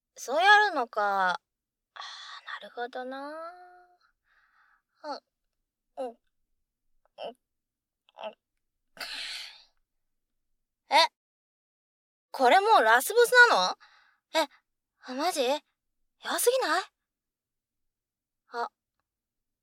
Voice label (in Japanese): お姉ちゃんの酔いどれ耳かき （耳かき音量ふつう）（声のみ）; 酔いどれお姉ちゃんととれーにんぐ; ダミーヘッド&ダミーボディマイク使用